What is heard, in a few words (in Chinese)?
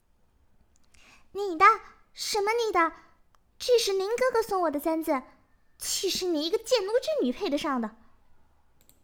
少女音